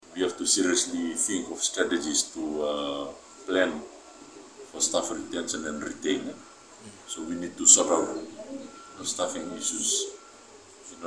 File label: AM Radio Grab; Fiji Met